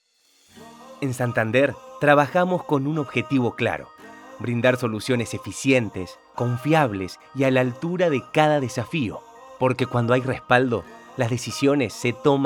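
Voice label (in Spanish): inspirador motivacional, espanol argentina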